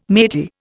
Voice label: "matey", Indian

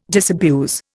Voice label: "disabuse", Indian

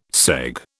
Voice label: "segue", British

